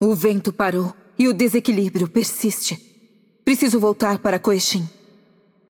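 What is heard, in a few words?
voice over, Brazilian Portuguese; Game Outro; bloom minigame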